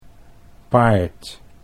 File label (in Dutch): "paard", Dutch; chess